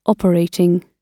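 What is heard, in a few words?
"operating", British English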